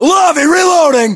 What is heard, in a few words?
inform reloading, US